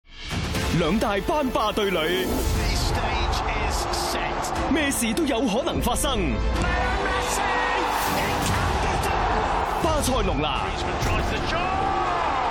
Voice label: Cantonese TVC

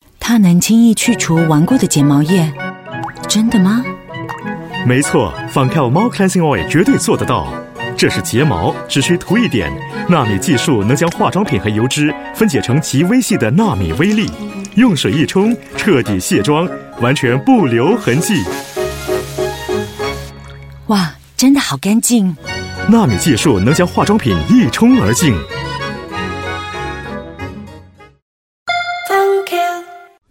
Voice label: Mandarin China Female; Fancl

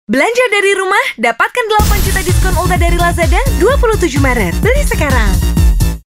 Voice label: Bahasa Indonesia; Lazada

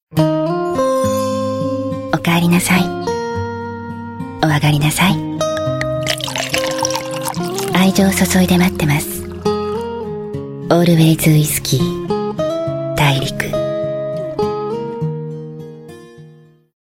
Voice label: Japanese Female Whiskey

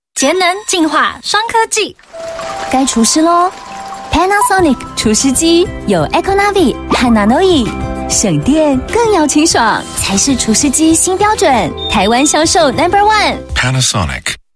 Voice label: Mandarin Taiwan Voice Sample

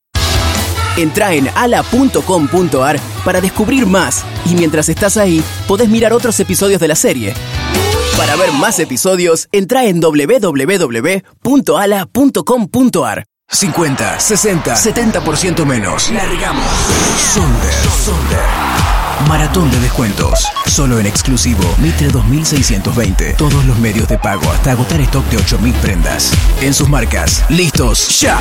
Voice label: Argentinian Spanish Commercial

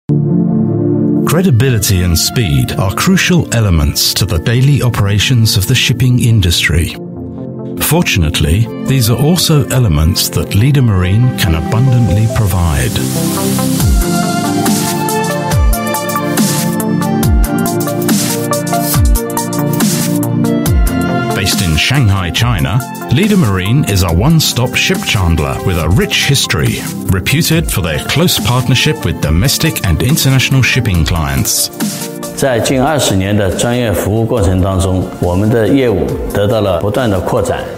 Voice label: UK English Corporate; Leader Marine

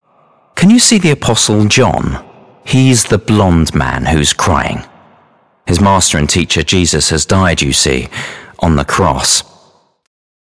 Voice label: UK English Audioguide

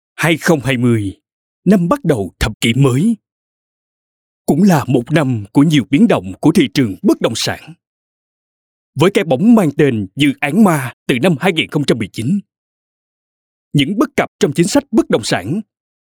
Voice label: Vietnamese South Male; Dat Xanh

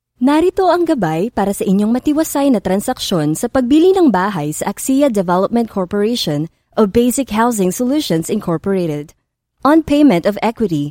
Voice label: Tagalog Corporate

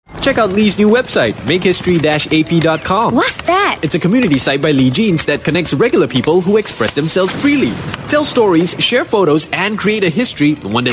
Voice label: Asian English Commercial

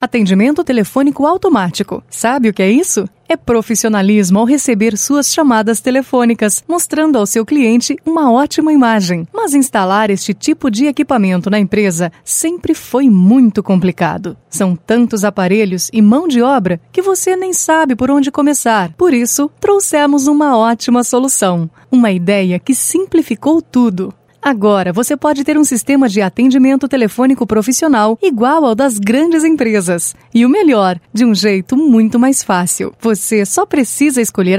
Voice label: Brazilian Portuguese, Brazilian Portuguese Female

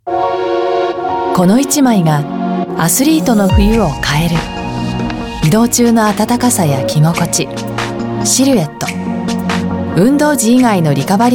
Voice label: Japanese Warm; BrandVideo

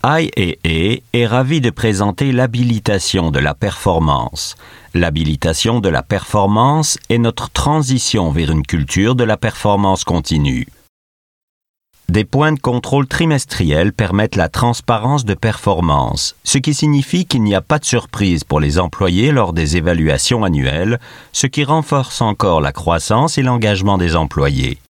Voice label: French Canadian; performance formation

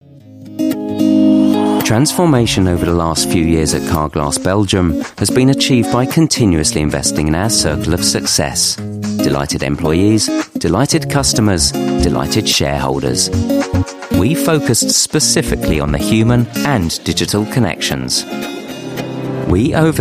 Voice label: UK English; Carglass Belgium

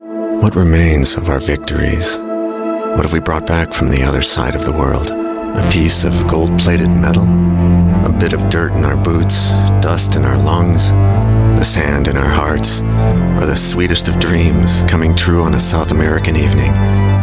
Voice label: US English; PEUGEOT

